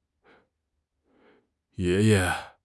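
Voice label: "ye2 ye5", Chinese; HSK; HSK3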